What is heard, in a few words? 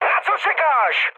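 attack position, Czech, mood high; speech; War Thunder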